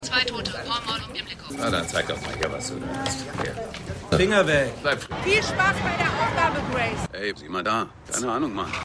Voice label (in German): Telefonstimme Frau; Verdaechtiger; Typ Bei Puddin; The Wire